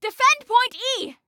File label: DEFEND, Female